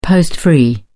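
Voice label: "post free", British English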